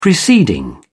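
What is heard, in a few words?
"preceding", British English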